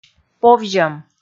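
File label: "повзьӧм", Komi, female; kpv audio dict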